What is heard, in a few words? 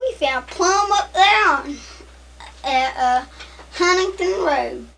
Conversation with 13 year old white male West Virginia; american english dialect